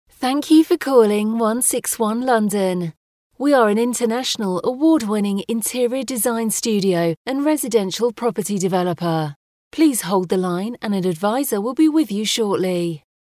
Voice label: IVR ON HOLD MESSAGE